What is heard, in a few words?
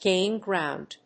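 "gain ground", English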